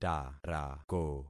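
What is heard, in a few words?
"tarako", concatenated syllables; Affixing experiment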